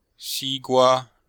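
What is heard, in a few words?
"xi gua", Chinese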